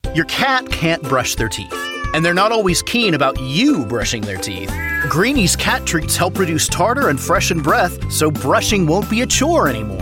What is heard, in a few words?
COMMERCIAL